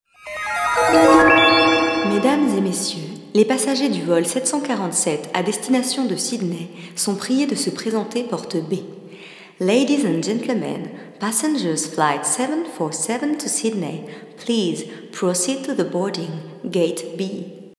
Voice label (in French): Voix aéroport Vol